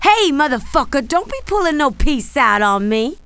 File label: angry, female; kingpin loc; mona